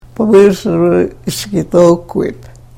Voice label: karuk